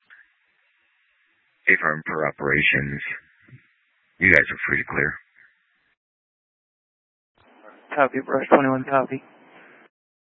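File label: Incident Tac 2, Scanner audio recording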